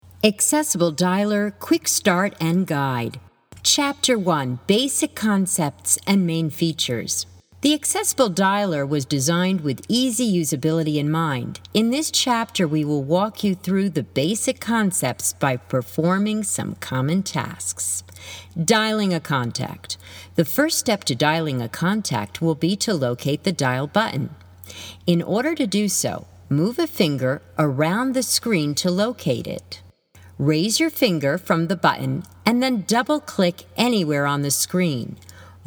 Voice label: English American e-learning

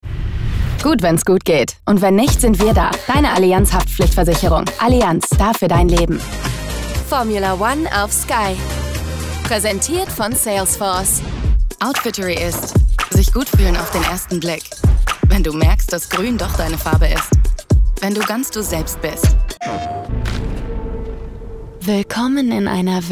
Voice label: german commercial

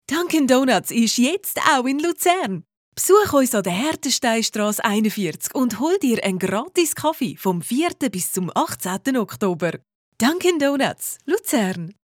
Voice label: german switzerland promos

